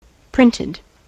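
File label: "printed", US English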